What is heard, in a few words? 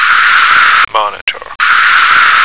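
"monitor", American English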